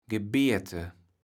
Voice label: "gebeete", Kaevels Platt

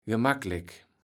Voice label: "gemacklek", Kaevels Platt

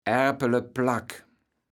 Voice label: "Aerpeleplack", platt

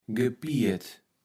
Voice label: "gepiert", Kaevels Platt

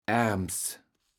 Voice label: "Aerms", platt

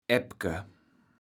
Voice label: "Aepke", platt

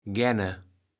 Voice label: "gänne", Kaevels Platt

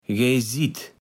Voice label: "gejsit", Kaevels Platt

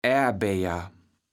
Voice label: "Aerbejer", platt

